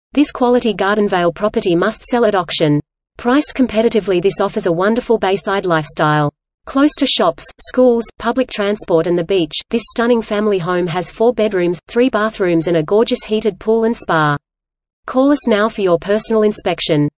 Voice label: AUS PRESENTER; REVideos